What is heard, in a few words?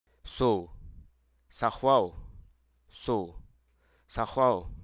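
Hruso Aka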